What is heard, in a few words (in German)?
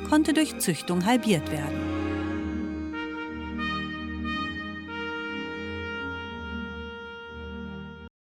imagefilm hopfen